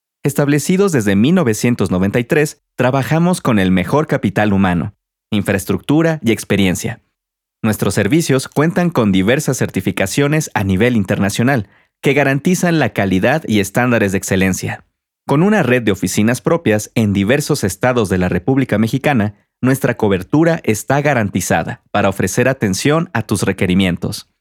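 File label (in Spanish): e-learning, Mexico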